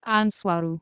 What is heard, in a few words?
"andswaru" Anglo Saxon